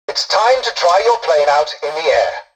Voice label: tutorial dialogue, english; War Thunder